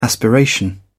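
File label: "aspiration", English, British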